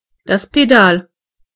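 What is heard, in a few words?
"pedal", German; gcompris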